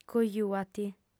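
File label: "cojua·ti", female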